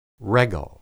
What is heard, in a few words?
"regel", Hebrew